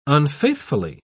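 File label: "unfaithfully", English, uk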